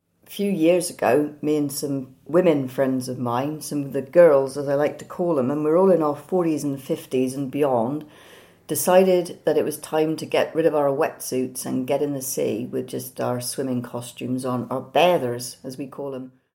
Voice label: Truro female